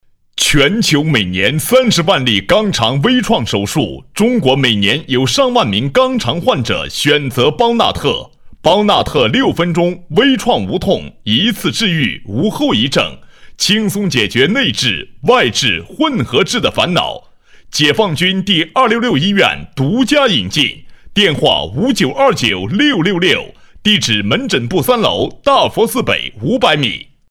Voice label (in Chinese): 男声配音 医疗广告; 266医院